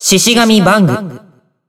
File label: System Voice Character Select; BBCF; Hibiki Kohaku; Bang Shishigami